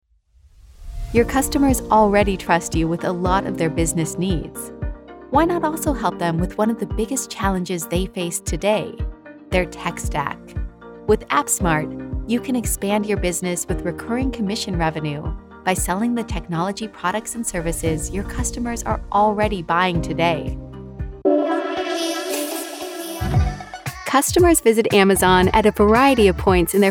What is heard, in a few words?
Female Industrial